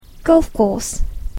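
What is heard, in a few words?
"golf course", English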